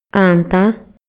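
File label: Maya, male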